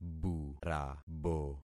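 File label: "burabo", concatenated syllables; Affixing experiment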